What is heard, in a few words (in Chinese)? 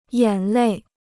"眼泪", Chinese